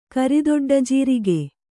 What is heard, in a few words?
"ಕರಿದೊಡ್ಡಜೀರಿಗೆ", kannada